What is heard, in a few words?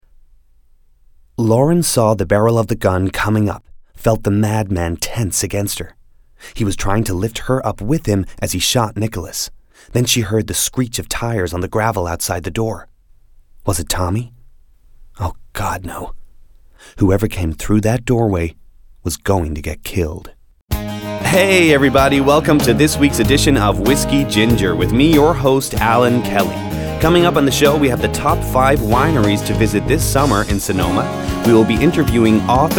American Narrative